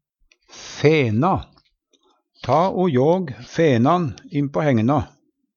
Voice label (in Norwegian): fena, Numedalsmål; Ta o jåg fenan inn på hegna.; I Uvdal brukt om sauersjå og fena